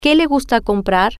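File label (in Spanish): Que Le Gusta Comprar, spanish, female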